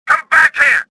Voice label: suspicious; XBOX